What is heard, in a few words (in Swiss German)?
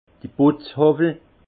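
"putzhowwel", Alsace Haut Rhin; vin; rabot de finition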